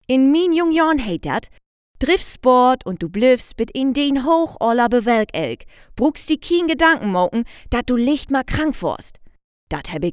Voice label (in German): demo plattdeutsch sprecherin